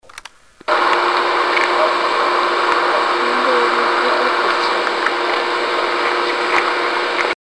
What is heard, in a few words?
Sound voices; EVP